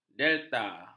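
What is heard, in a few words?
"delta", Malay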